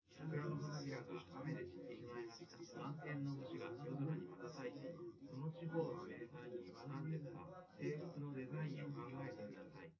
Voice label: male4 liverec 250ms 5cm sim

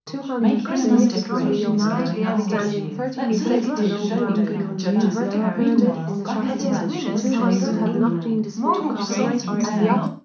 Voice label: female, 380 ms, 50 cm, mix; SiSEC11; underdetermined

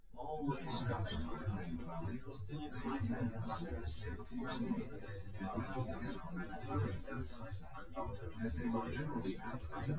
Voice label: male synthconv; SiSEC08; SiSEC underdetermined

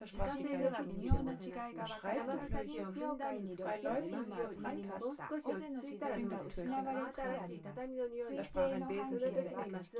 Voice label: female synthconv mix

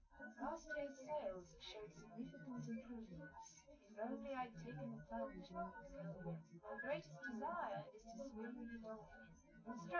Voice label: female, live rec, 250ms, 1m

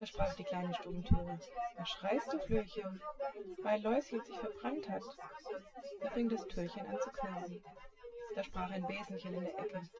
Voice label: test female synthconv 130ms 1m src; SiSEC08; SiSEC underdetermined

